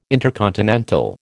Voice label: "intercontinental", American